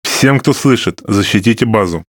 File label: RU Speech Quick Commands Ally Base; World Of Warships; USSR Ovechkin